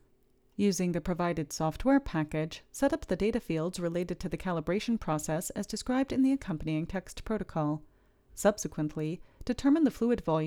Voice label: Voiceover